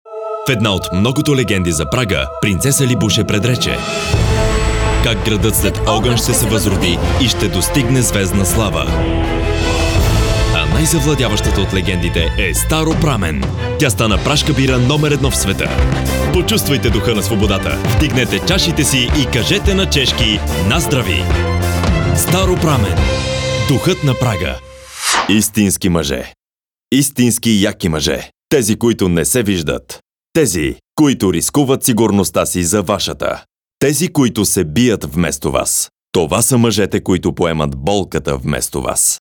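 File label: male, Bulgarian voice over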